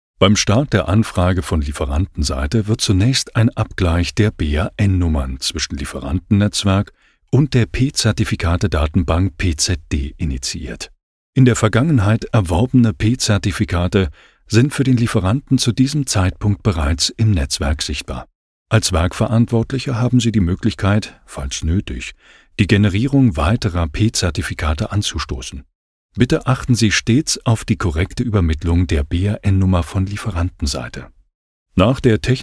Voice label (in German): Hoerprobe E-Learning; P Zertifikate